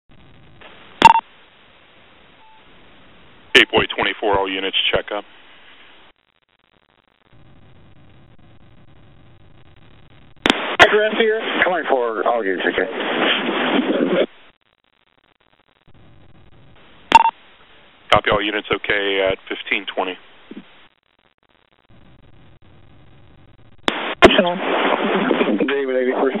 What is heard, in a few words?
radio, Call Coroner; Medical